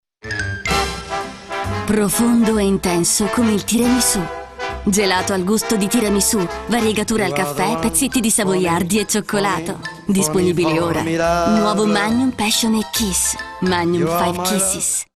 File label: passionate kiss; magnum; algida